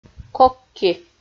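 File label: "кок-ки", Komi, female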